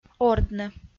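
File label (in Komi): "ордны", Komi, female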